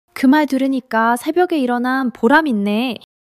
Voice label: excitement; monologue